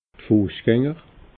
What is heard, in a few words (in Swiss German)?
"fuessganger", alsace